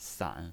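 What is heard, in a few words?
"san", Mandarin Chinese pinyin voice, third tone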